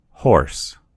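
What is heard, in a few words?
"horse", American English; gcompris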